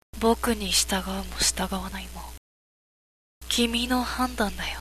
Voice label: boy